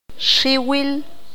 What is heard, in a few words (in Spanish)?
"mucho bastante", qanjobal